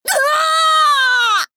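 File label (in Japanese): 「ぐわぁぁぁ！」, 男の子 王道系少年主人公 戦闘ボイス ダメージ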